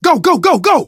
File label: emphatic go, survivor voice, manager; source engine; left 4 dead 2